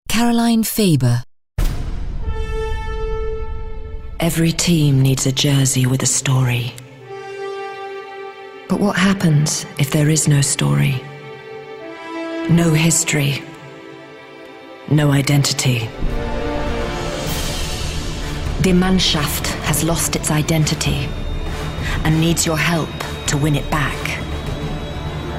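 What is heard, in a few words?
narration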